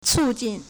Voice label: "cu4 jin4", Chinese